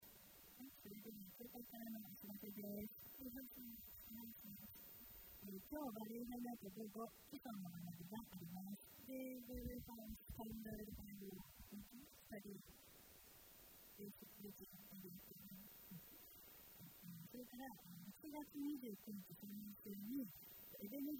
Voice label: announcement; sunday message 2023